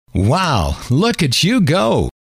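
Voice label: "wow look at you go", male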